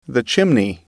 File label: "chimney", English